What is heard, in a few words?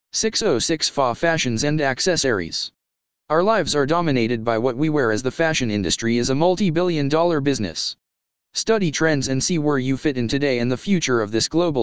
text 2 voice